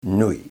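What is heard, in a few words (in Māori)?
"nui", Maori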